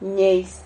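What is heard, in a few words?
"ñeis", Spanish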